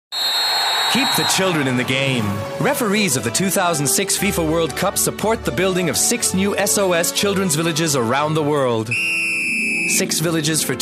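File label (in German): US English, Werbung Freundlich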